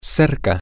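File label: close To, spanish, male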